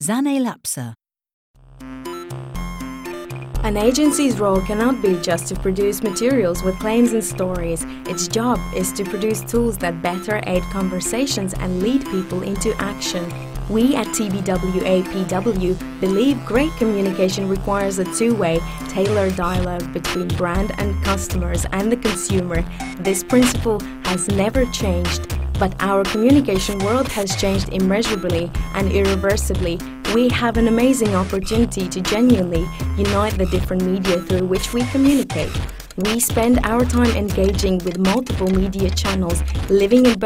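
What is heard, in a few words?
eng, Latvian voice artist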